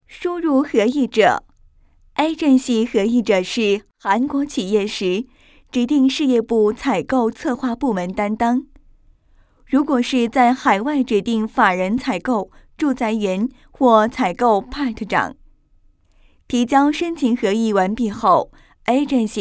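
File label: Chinese, female, e-learning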